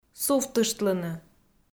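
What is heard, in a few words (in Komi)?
"сувтыштлыны", Komi, female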